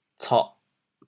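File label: "coh4", Teochew, chaoyang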